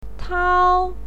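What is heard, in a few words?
"tiao1", Mandarin Chinese pinyin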